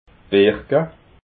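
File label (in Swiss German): "birke", Alsatian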